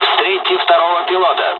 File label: fly, dialogs russian; War Thunder